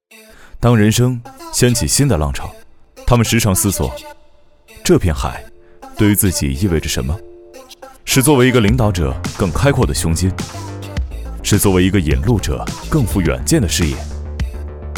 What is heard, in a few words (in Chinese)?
男 宣传片 现代感